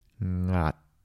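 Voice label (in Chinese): 啮-台城-男, 台山话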